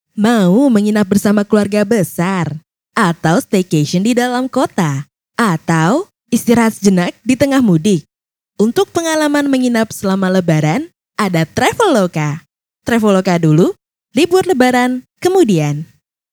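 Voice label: Indonesian CASUAL, perfect mix of depth and warmth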